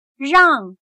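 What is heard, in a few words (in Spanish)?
"让", chino mandarin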